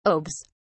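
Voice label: "obes", British English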